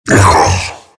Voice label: anger; terrorblade; morph

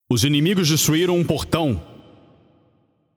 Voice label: Announcer PTBR Match Destroyed Team Gate

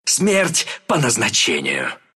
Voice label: kill, ru; Riki

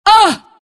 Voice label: legion commander pain

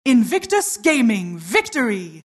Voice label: announcer team victory

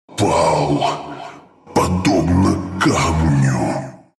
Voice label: death ru

